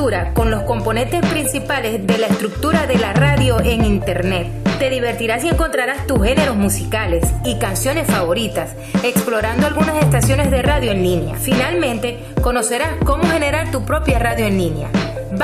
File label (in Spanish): espionaje, locutora